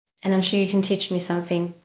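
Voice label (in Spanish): Voice Acting es F, Spanish, female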